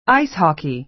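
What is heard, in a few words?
"ice hockey", American English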